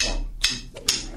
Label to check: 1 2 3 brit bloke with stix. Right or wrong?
Right